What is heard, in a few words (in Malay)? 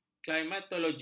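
"klimatologi", Malay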